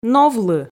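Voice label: "новлы", Komi, female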